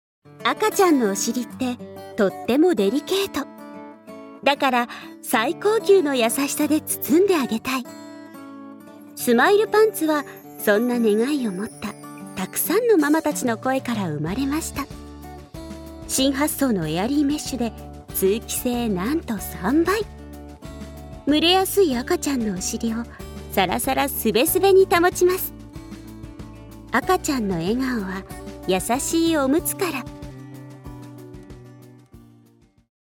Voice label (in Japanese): ナレーション